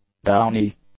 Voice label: "downy", English; adjectives